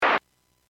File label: Aviation 122.7; KSTE